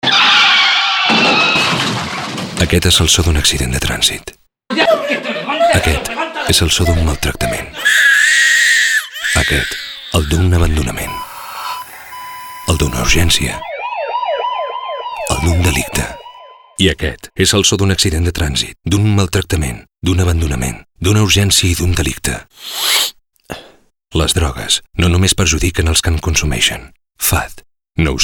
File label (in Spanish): Banda Catalán; Campañas Fad